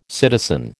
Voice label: "citizen", American

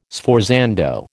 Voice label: "sforzando", American

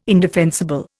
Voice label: "indefensible", Indian

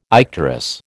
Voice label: "icterus", American

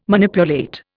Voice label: "Manipulate", Indian

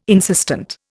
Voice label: "insistent", Indian

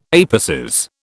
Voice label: "apices", British

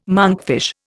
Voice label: "monkfish", Indian

